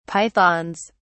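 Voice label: "pythons", British English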